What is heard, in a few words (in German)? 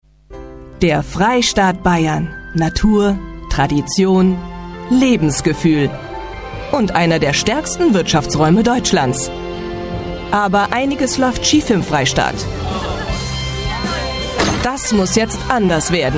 Werbespot potpourri, sprecherin; schauspielerin